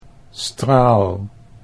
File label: "straal", Dutch